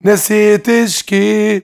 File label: MAN VOICE, Arabian Traditions